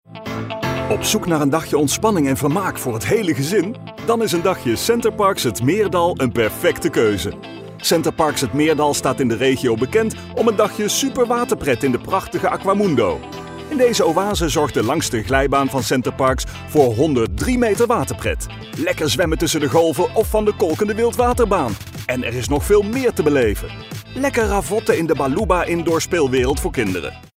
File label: vo Southern accent enthusiastic; ZACHTE G-2